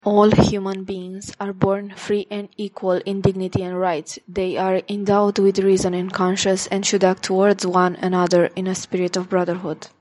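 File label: English Romania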